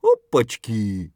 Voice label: mutter; Escape from Tarkov; scav5